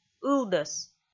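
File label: "ылдӧс", Komi, female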